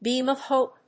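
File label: "beam of hope", English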